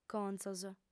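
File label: "contzos", female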